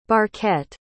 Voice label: "barquette", pronounce

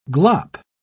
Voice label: "glop", pronounce

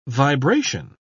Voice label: "vibration", pronounce

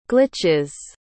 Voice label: "glitches", pronounce

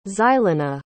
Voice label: "xylona", pronounce

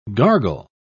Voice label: "gargle", pronounce

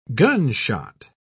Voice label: "gunshot", pronounce

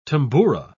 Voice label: "tamboura", pronounce

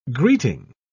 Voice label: "greeting", pronounce

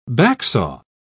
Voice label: "backsaw", pronounce

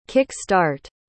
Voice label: "kick-start", pronounce

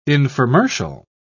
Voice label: "infomercial", pronounce

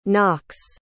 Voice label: "knocks", pronounce

